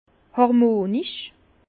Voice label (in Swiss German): "harmonisch", Bas Rhin; harmonieux; vin